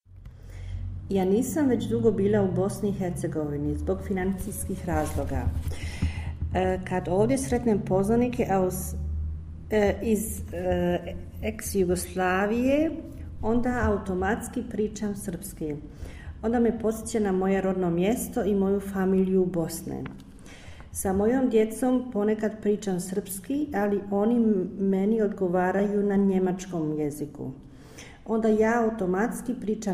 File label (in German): Serbisch